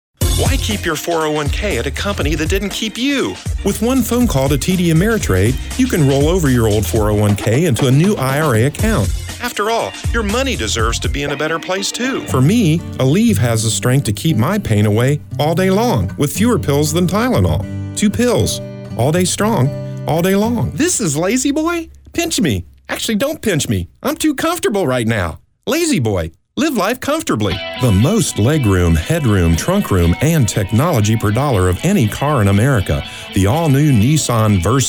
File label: COMMERCIAL